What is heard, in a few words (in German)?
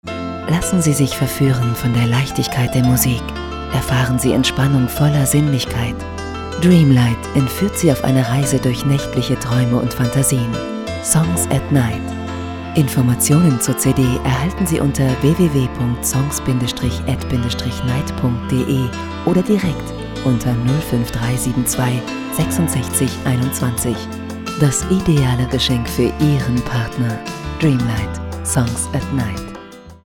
deutsch, Sprecherin, telefonansagen